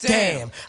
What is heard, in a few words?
"damn", expletives